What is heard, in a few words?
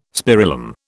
"spirillum", British